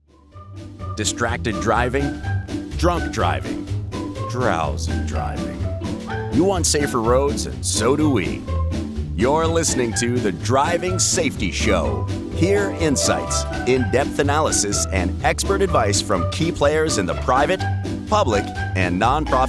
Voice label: podcast

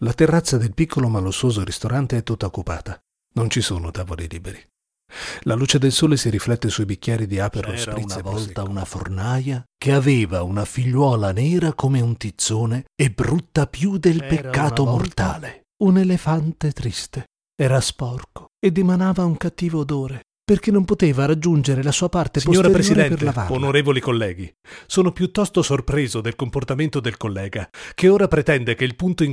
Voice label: Audiobook Narration Acting

